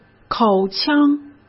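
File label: "口腔", Mandarin; chinese-flashcards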